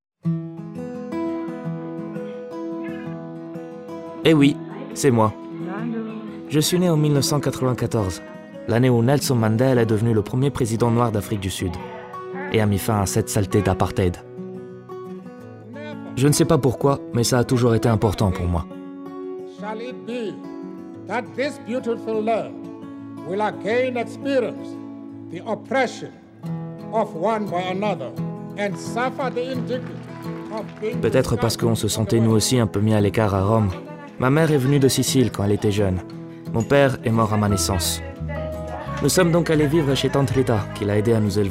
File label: male italian french with italian accent tv documentary